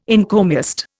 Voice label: "encomiast", Indian

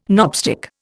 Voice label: "knobstick", Indian

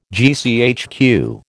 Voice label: "GCHQ", American

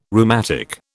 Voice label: "rheumatic", British

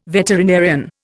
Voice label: "veterinarian", Indian

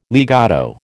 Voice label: "legato", American

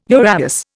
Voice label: "uraeus", Indian

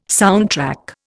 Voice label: "soundtrack", Indian

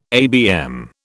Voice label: "ABM", British

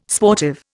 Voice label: "sportive", Indian

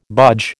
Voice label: "budge", American

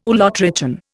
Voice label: "ulotrichan", Indian

